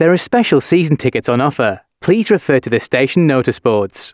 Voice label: announcements, male